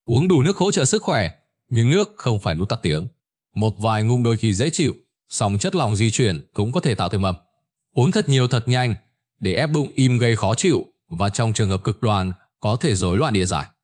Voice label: narration; Vi Sao Bung Ban Reo Khi Doi; StickerMan